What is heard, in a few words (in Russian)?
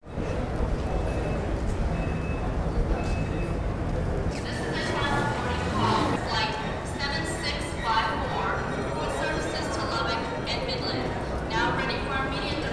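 на фоне объявление в аэропорту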